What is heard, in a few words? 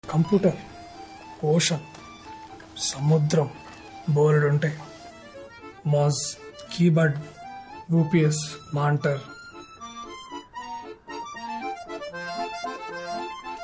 Dialogue Telugu Ringtone; Priyadarshi